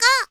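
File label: "go", Japanese female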